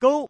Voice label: Man

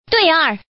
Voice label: Woman dui

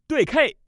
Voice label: pair 13, male

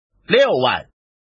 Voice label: man

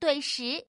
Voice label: pair, female

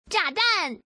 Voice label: bomb, female

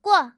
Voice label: pass, female

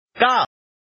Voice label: man gang

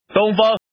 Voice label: man

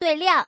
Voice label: Woman; Woman dui6

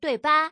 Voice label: pair 8, female

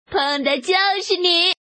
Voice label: woman peng

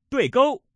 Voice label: pair, male

